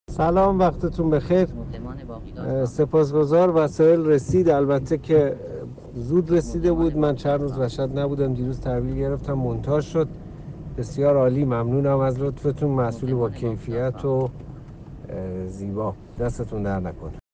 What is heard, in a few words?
customer voice for outdoor furniture in Mashhad